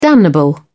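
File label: "damnable", British English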